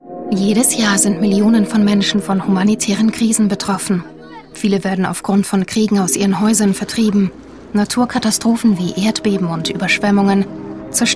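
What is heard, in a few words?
German Corporate; GoLocalise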